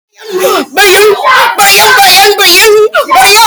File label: x5 fast